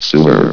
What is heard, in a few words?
"sewer", vox